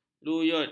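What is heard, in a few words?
"luyut", Malay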